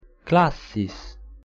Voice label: "classis", latin